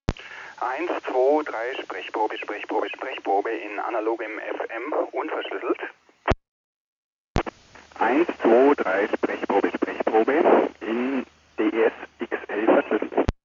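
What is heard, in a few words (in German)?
des xl decrypted, Motorola Verschlüsselung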